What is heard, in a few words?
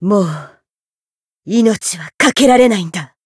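encounter fear, Japanese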